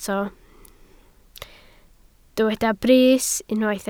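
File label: "brys" Welsh, spoken word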